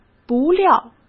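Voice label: "不料", Mandarin Chinese; chinese-flashcards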